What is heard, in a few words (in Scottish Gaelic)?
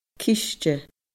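"ciste", Scottish Gaelic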